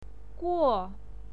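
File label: "guo", Mandarin Chinese pinyin, fourth tone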